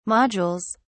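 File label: "modules", British English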